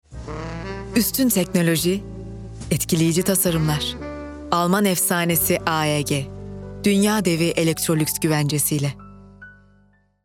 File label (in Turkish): kurumsal imaj